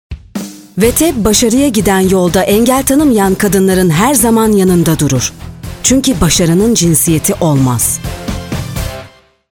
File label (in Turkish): reklam; teb